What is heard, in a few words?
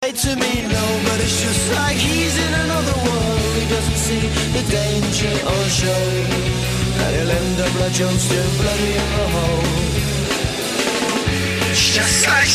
radio voice